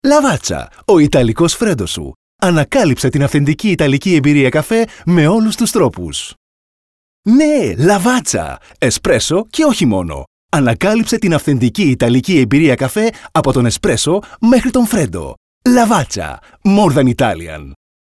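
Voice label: Greek, male, commercial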